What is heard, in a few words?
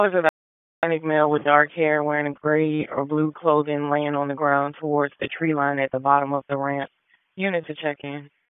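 Police Downtown District Dispatch, p25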